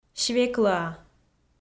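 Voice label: "свеклӧа", Komi, female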